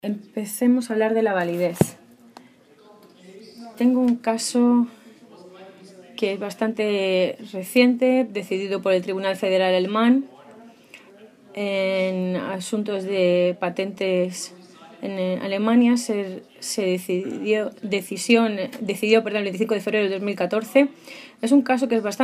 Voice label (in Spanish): castellano